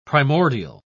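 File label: "primordia", British English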